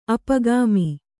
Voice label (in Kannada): "ಅಪಗಾಮಿ", Kannada